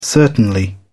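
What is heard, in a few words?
"certainly", British English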